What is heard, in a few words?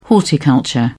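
"horticulture", British English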